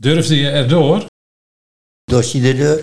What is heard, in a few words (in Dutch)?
Dorsie dr deur, Nieuw lekkerlands dialect; durfde je er door?; dorssie dr deur